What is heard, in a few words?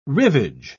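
"rivage", British English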